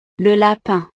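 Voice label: "le lapin", French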